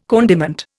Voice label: "condiment", Indian